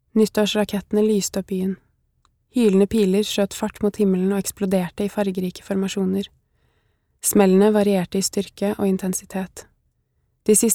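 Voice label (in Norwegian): lydbok, Norwegian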